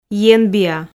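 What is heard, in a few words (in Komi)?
"енбиа", Komi, female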